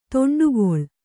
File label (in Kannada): "ದಂಡೆಗೊಳ್ಳು", Kannada